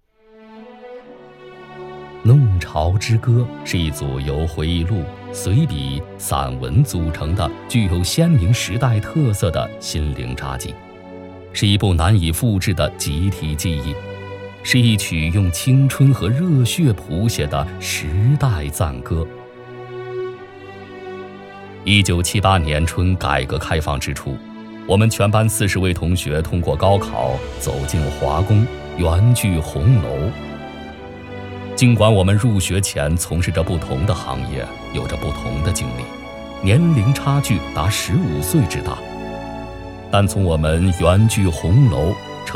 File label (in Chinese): 男 宣传片 弄潮